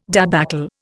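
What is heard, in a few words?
"débâcle", Indian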